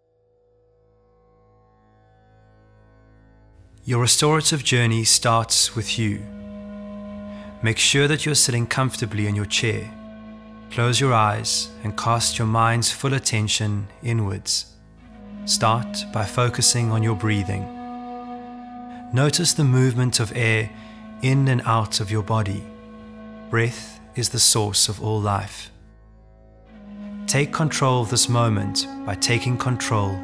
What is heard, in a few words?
Voice Over